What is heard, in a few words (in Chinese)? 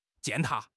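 "践踏", Chinese; HSK6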